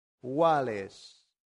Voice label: "valles", latin; santillana